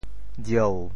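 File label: "jieu7", Teochew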